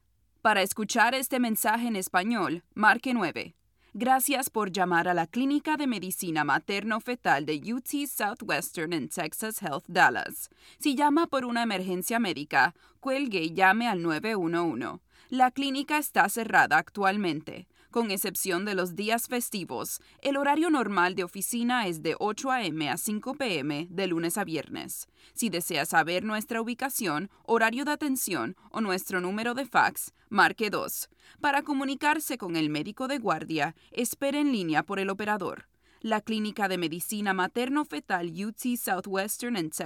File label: spanish latin american ivr; professional bilingual (English and Spanish) voice actor